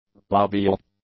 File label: "labial", english